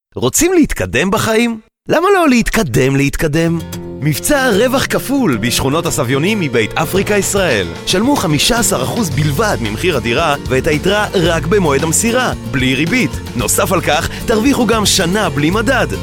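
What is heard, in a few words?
Hebrew male Commercial; voice over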